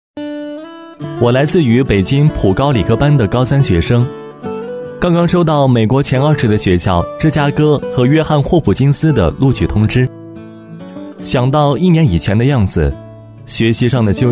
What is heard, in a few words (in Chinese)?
男青年